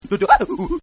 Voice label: Cartoon Voice DOODOOWA; Cartoon Voices; Cartoons

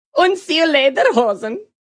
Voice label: See You Lederhosen, Male; VoiceBox; Twistedtracks

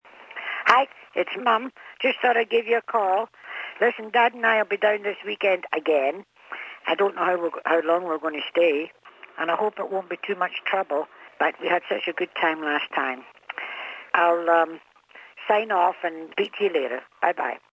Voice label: Phone Hi Its Mum, Female; Telephone Voice

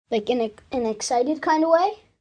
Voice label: In An Excited Way, 1 boy, 10 yrs; Talking Sound Effects

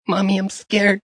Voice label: Mommy Scared, Male; Talking Sound Effects

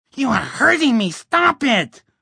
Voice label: You Are Hurting Me, Male; Talking Sound Effects

